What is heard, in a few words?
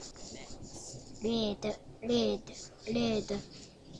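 "lead", spoken word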